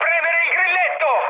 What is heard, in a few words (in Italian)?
attack position, mood high, speech it; War Thunder